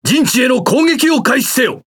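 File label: Speech Quick Commands Enemy Base, Japanese; World Of Warships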